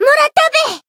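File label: Voice finish, Japanese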